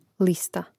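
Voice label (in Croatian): "lista", Croatian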